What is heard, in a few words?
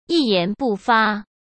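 "一言不发", Mandarin Chinese; chinese-flashcards; hsk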